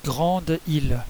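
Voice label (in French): "big island", French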